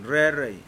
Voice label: "dress", Pingelapese

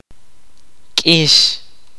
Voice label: kaqchikel; thorn

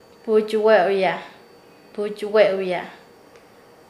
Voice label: Nasa Yuwe; conversar; paez

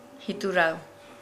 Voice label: Nukuoro; seven hundred

